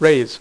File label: "RAISE", Dealer's Speech